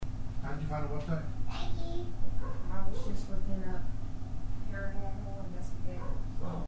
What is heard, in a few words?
Childs voice saying thankyou; Denton NC